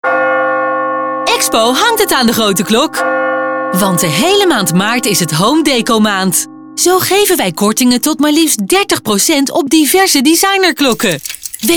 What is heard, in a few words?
commercial; expo klok nl